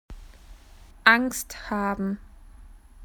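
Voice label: "scared", Australian English; PictureDictionary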